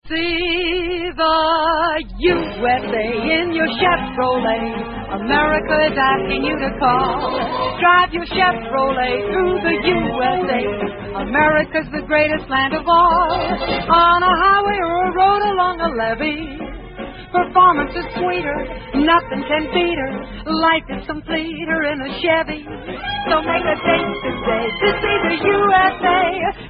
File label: Vintage Commercials; Chevrolet; Dinah Shore